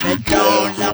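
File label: effects talk, Funky Vocals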